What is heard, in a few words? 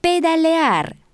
Spanish cycle; samsung YP-U5; Audio User Interface